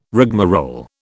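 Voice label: "rigmarole", British English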